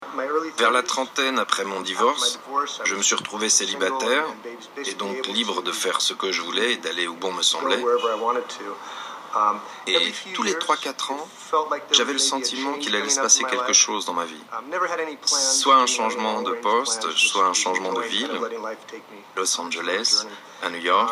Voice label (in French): voix off docu SRI LANKA